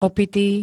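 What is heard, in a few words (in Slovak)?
"opitý", Slovak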